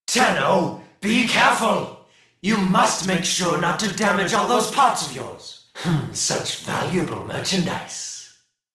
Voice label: Taunt Neutral; Warframe; Alad V Boss; en